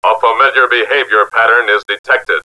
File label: familiar behavior pattern detected, robot; robot b9